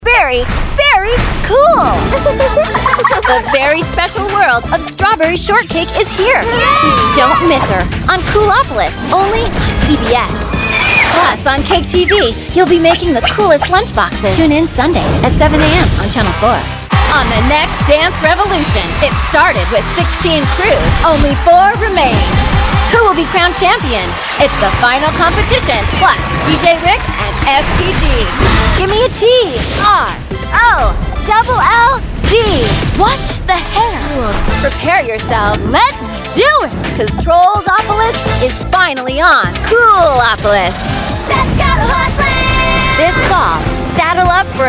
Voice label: youth promo, women